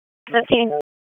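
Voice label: Dispatch 1, P25; WSO DISP 1; Wake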